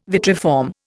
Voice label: "vitriform", Indian